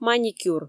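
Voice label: "маникюр", Komi, female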